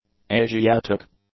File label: "Asiatic", English; adjectives